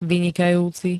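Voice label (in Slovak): "vynikajúci", Slovenské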